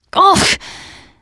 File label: reaction negative special, teen girl; player; survivor; voice